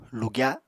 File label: "lugya", Apatani